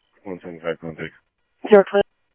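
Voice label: Mesa Police Department, scanner audio; Mesa Simulcast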